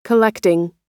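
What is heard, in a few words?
"collecting", American English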